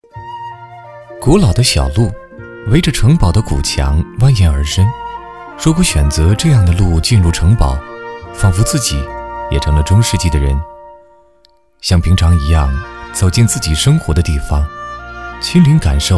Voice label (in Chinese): 男 栏目 年轻 温婉, 国语男声配音; 温莎城堡